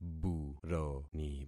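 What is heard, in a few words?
"buroni", concatenated syllables; Affixing experiment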